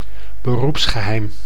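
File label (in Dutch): "beroepsgeheim", Dutch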